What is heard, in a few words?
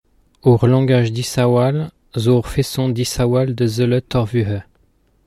breton